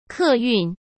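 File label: "客运", Mandarin Chinese; chinese-flashcards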